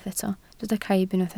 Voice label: "caib", Welsh